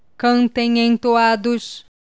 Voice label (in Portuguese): cantem entoados